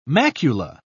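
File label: "macula", British English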